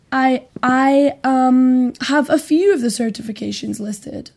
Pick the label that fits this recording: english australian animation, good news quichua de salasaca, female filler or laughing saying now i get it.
female filler